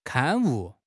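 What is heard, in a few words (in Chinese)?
"刊物", Mandarin Chinese; HSK6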